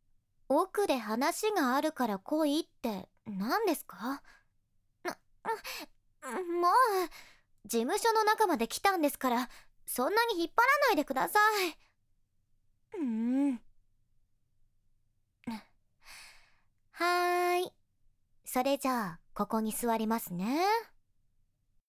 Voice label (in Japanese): 色仕掛けする悪～い女の子